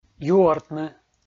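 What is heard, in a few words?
"юӧртны", Komi, male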